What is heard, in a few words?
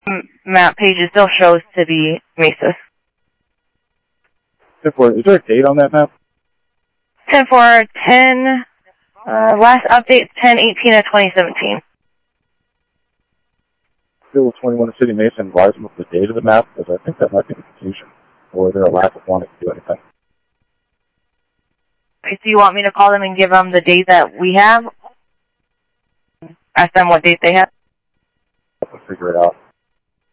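Sheriff East County, scanner audio trunk; MCSO - EAST Sheriff-EastCnty; ThompsonPeakScot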